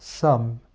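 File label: "some", English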